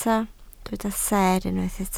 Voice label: "seer", Welsh